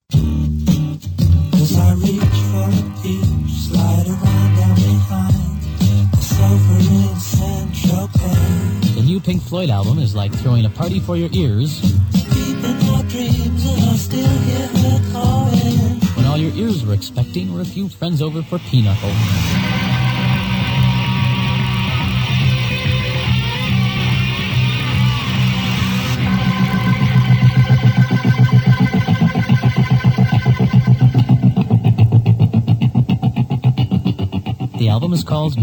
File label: Radio Advertisement